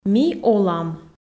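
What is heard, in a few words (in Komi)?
Komi, ми олам, female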